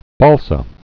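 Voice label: "balsa", spoken word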